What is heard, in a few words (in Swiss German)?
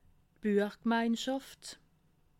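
"buechgemeinschaft", Alsatian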